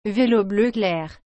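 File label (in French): "vélo bleu clair", French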